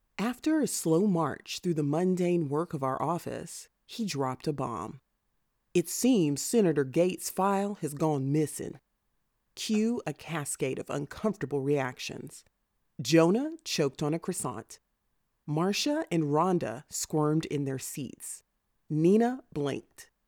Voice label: Mystery 1st POV M F Dialogue Multi Character Southern Accent; Feminine Voices of the Legion